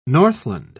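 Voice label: "northland", British English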